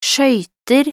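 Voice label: "skøyter", Norwegian Bokmål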